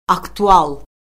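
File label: "aktual", Azerbaijani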